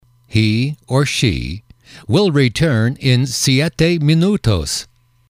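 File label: "he she will return in seven minutes", English, male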